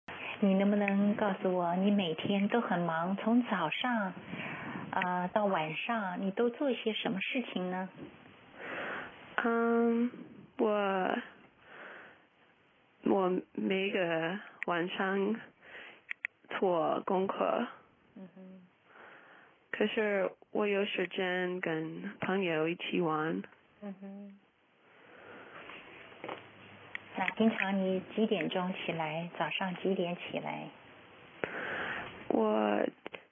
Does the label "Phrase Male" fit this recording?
No